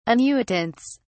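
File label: "annuitants", British English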